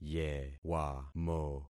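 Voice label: "yewamo", concatenated syllables; Affixing experiment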